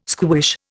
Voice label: "squish", Indian